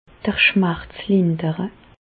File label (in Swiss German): "schmerz", Alsace, Bas Rhin; medecine; soulager la douleur